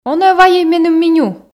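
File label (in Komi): он-ӧ вайӧй меным меню, Komi, female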